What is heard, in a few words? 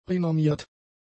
"renommiert", German; adjectives